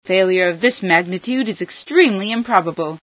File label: "improbable", female sci; npcs